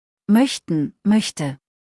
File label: "moechten", deutsch; verben kurzliste